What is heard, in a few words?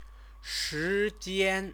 "shijian", Chinese; Chinese lessons